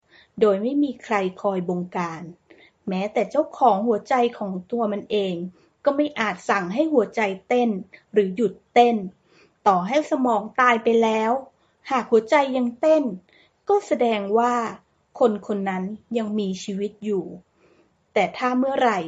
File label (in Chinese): 泰语女声